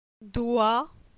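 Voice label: "Doi", French; phonetique; la syllabe oi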